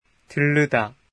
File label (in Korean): "들르다", korean